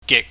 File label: "gik", chinese